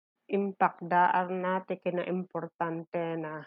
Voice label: Ilocano